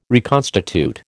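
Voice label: "reconstitute", American English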